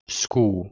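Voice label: "skouh1", Proto-Indo-European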